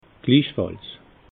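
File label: "glichfalls", Alsatian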